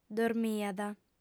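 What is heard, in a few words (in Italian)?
"dormiat", lingua sarda, female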